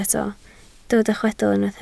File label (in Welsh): "chwedl", Welsh